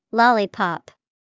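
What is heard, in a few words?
lollipop, English vocabulary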